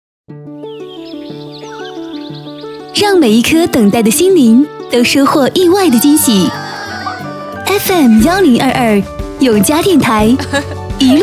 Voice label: 女 台宣

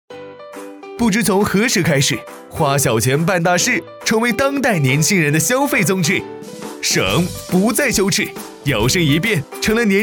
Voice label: 男 活力MG 省学指南; 天猫

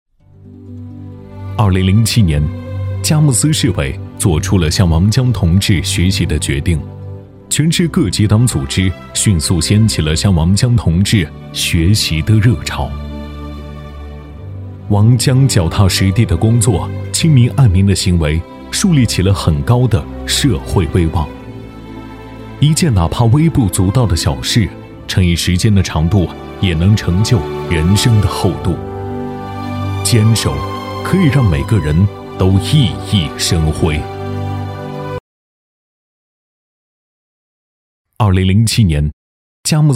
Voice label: 男 人物专题

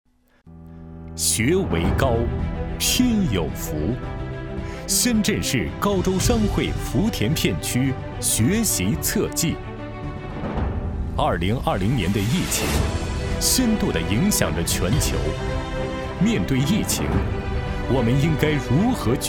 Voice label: 男 宣传片; 学为高-拼有福

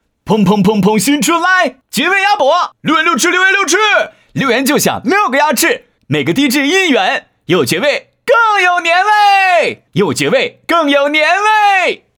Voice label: 男 活力广告 鸭脖; 绝味鸭脖

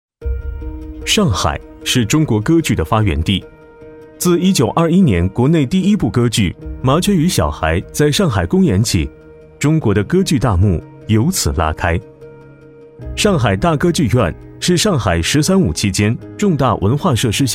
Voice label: 男 专题; 上海大歌剧院

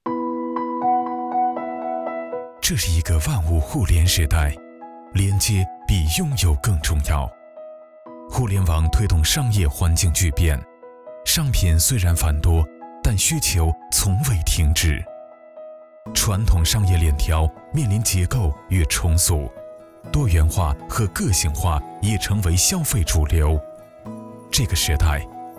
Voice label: 男 科技专题; 3u生态

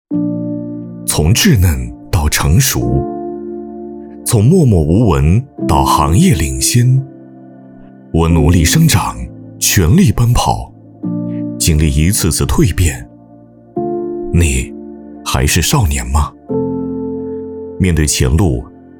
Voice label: 男 走心旁白 大气温暖解说

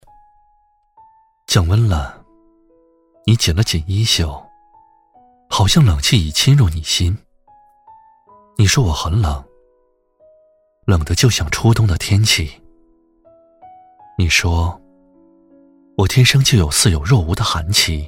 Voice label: 男 情感独白 电台风格; 降温了

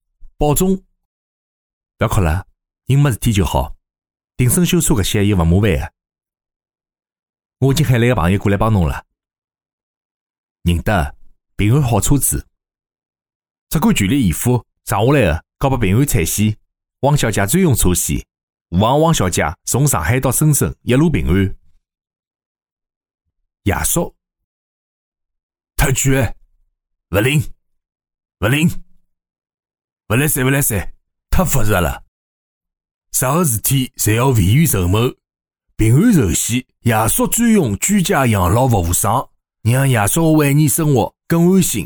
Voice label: 男, 角色, 爷叔; 宝总

